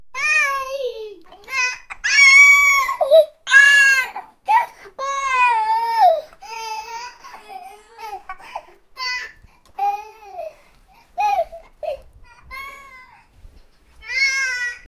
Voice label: she angry she yelling